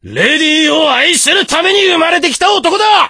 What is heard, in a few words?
voice, dubbing